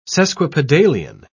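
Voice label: "sesquipedalian", British English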